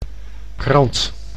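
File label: "krant", Dutch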